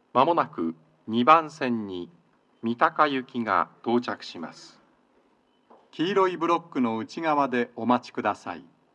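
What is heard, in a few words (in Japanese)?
sekkin, 接近放送 【男声】; 放送は「各駅停車 三鷹行き」です。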